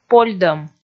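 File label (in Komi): "польдӧм", Komi, female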